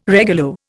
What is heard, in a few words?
"regulo", Indian